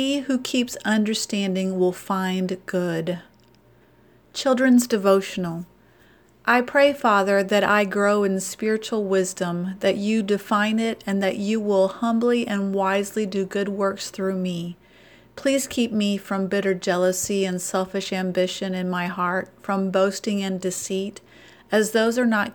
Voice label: Childrens Bible Verse; Proverbs 19 8